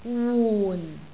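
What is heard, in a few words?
"wun4", Cantonese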